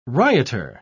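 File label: "rioter", British English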